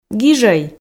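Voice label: "гижӧй", Komi, female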